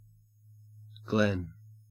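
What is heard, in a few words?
"glen", Australian English; pronunciations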